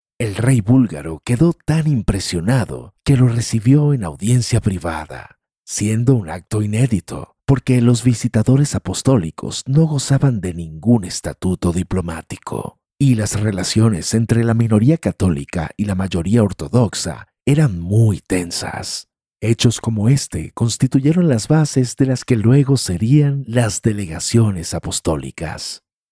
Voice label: Documentary